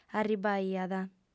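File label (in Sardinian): "arribaiat", lingua sarda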